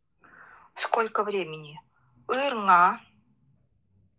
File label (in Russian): время нивхский; ырӈа?; чи сидь к’рыу ырух ныдь?